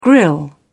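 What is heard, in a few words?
"grille", British English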